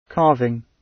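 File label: "carving" British English; The arts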